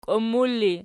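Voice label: "kiummur" ergative, Archi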